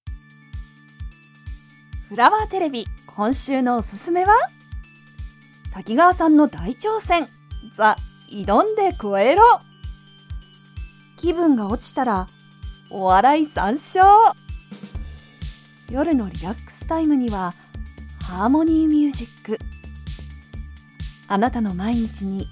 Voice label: Podcasting excitable attractive upbeat Cheerful communicator